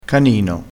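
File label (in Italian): "canino", dialettale crespinese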